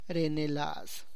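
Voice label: remembers someone, Tlacochahuaya Zapotec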